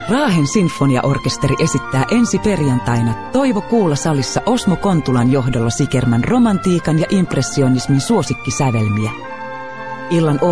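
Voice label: Finnish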